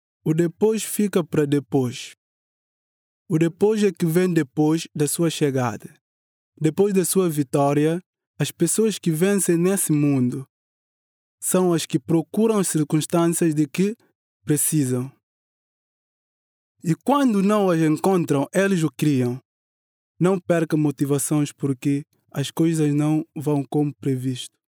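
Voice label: Portuguese Mozambique, male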